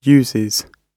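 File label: "uses", British English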